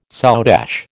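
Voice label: "soutache", American English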